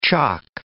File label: "chock", English